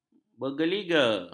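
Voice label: "bergeliga", Malay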